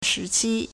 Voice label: "shi2qi1", Chinese